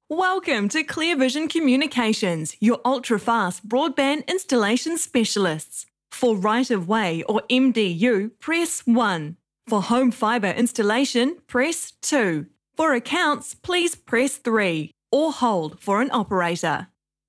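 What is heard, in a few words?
New Zealand Voice Talent Phone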